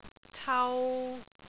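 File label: "cau3", Cantonese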